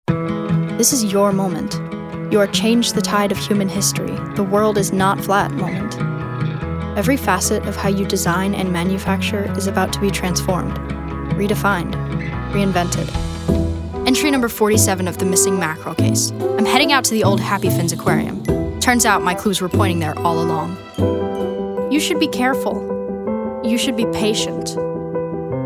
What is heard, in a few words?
women commercial; Youthful, with attitude and an authentic delivery.